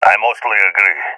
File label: agree, balkan; balkan epic